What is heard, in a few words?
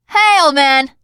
name, teen girl; bill